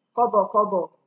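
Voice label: "kobokobo", Nigerian Pidgin, female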